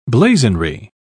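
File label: "blazonry", British English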